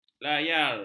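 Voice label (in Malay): "layar", Malay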